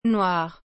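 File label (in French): "noir", French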